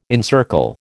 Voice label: "encircle", American